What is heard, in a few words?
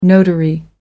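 "notary public", British English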